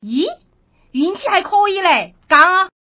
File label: gang3, woman; guizhou